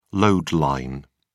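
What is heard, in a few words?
"load line", British English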